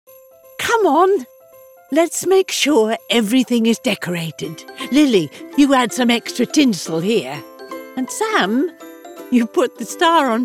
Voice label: Confident, Joyful, Upbeat; Wilko